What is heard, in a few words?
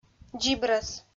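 "дзибрӧс", Komi, female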